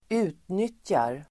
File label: "utnyttjar", Swedish